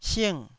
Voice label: "sing4", Taiwanese